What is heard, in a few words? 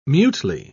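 "mutely", British English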